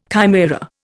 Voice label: "chimaera", Indian

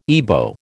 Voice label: "Ibo", American

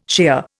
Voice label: "shear", Indian

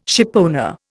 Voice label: "shipowner", Indian

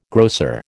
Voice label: "grocer", American

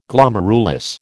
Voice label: "Glomerulus", American

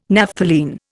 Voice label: "Naphthalene", Indian

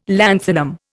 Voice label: "lanthanum", Indian

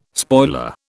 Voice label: "spoiler", British

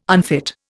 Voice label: "unfit", Indian

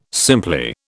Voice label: "simply", British

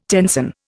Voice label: "tenson", Indian

